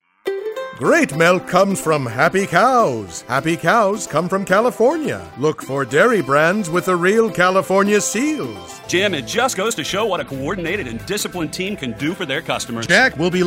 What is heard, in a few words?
Commercial